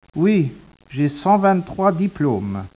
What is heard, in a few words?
speech, French